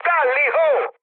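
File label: Italian enemy detected mood high, speech; War Thunder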